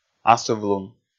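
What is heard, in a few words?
"асыв-лун" Komi, male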